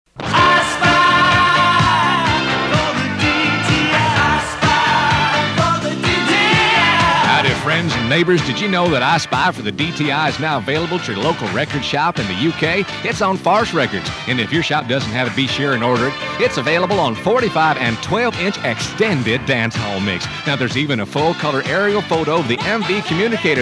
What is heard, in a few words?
Radio Jingles Commercial; Radio Laser 558